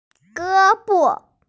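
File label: "ge1bo5", Mandarin Chinese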